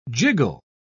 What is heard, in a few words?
"jiggle", pronounce